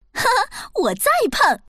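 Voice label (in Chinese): "peng", female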